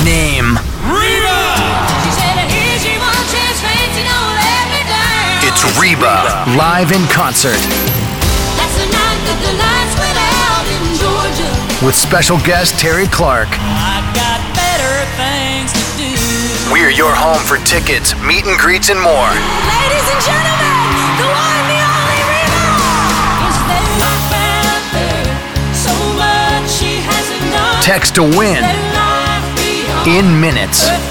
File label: Imaging Country